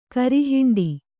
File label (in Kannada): "ಕರಿಹಿಂಡಿ", Kannada